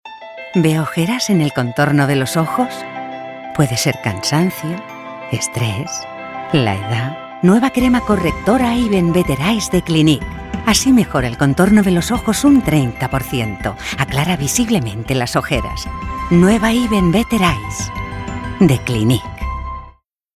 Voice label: female spanish skin care comercial; ideasonora